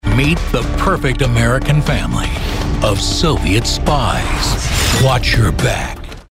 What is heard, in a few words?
narrative ominous